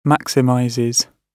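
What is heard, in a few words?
"maximizes", British English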